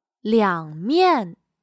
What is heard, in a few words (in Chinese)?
"两面", chino